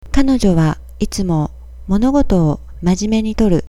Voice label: "majime", Japanese

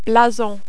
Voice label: "blason", French

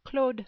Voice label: "Claude", French

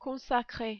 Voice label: "consacrer", French

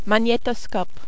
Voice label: "magnetoscope", French

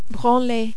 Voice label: "branler", French

